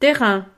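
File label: "terrain", French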